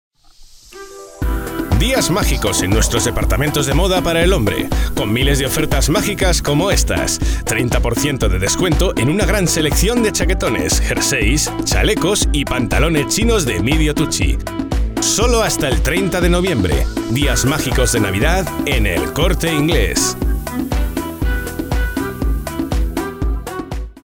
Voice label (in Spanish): Cuña; Corte Inglés